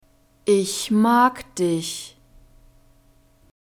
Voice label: "ich mag dich", German; love